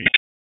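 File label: Dispatch 1, P25; WSO DISP 1; wake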